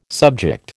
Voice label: "subject", American

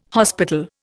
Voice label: "hospital", Indian

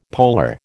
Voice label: "polar", American

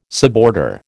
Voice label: "suborder", American

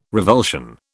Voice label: "revulsion", British

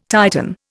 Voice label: "Titan", Indian

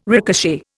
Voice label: "ricochet", Indian

